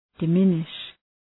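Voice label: "diminish", English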